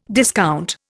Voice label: "discount", Indian

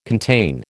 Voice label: "contain", American

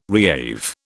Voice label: "reave", British

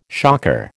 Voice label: "shocker", American

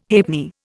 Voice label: "halfpenny", Indian

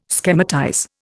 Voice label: "schematize", Indian

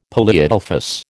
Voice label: "polyadelphous", American

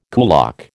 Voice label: "kulak", American

